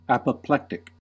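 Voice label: "apoplectic", US English; pronunciations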